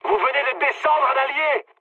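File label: French player killed ally mood high; War Thunder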